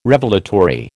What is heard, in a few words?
"Revelatory", American